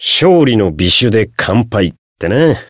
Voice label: win, jp

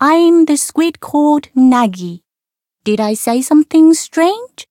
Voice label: story

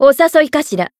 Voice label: join, jp; herena; zodiac

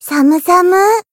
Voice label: Voice attack, jp; yukino

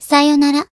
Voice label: finish, jp

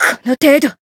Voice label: damaged jp; felmina

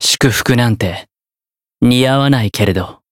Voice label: growth, jp; dunarith

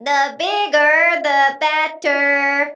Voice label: prince encount fear

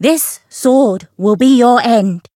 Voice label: Voice variable chant; niike

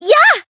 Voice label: attack jp; saki

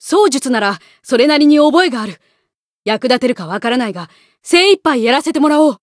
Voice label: voice jp; buria

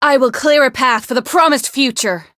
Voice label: Voice variable chant

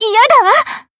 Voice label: damaged, jp; channon

